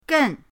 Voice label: "gen4", pinyin

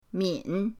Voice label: "min3", pinyin

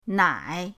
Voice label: "nai3", pinyin

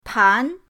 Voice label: "tan2", pinyin

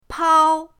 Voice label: "pao1", pinyin; pinyindct-open

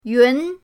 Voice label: "yun2", pinyin

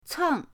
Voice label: "ceng4", pinyin

